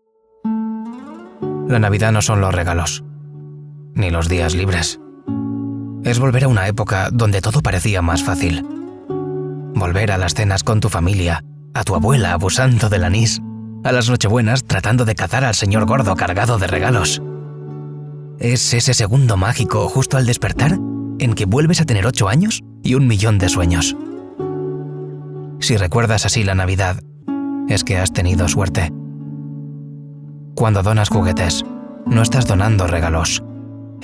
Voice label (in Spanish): personaje casual, banco de voces; Voz masculina; Registro casual (personaje)